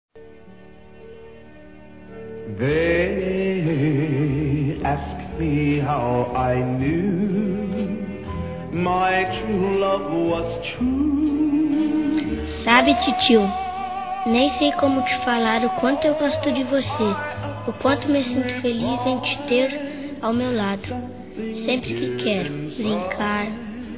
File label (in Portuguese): Aniversário Tio Infantil Masculino; Telemensagens 2017; Aniversários